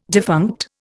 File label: "defunct", Indian